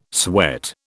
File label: "sweat", British